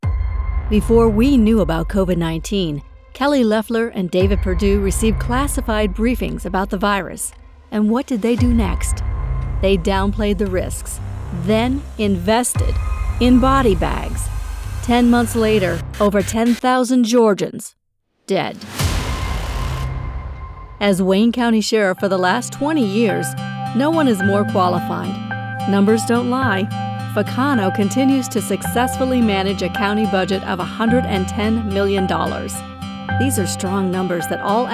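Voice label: Political Ad, American voiceover actor who speaks English with a neutral accent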